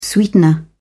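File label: "sweetener", British English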